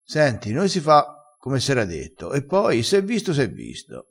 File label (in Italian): "chi s e visto", crespinese